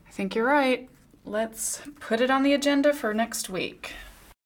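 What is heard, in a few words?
agreeing, American English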